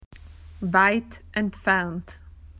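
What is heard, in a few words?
"far", Australian English; PictureDictionary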